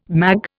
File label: "mag", Indian